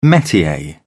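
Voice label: "metier", English, British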